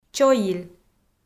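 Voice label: "чойиль", Komi-Zyrian, female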